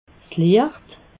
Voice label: "liecht", alsace

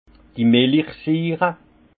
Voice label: "millichsiire", alsace; vin; acide lactique

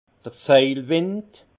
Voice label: "seilwind", alsace; vin; cabestan

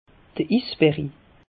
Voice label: "is berri", alsace; meteo

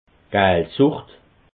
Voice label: "galsucht", alsace

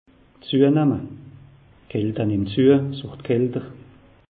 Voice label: "zuenamma", alsace; meteo

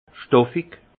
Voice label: "stoffig", alsace; vin; étoffe

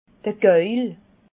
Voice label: "gauil", alsace

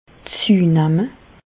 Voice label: "zuenemme", Alsace, Bas Rhin; medecine; prendre du poids

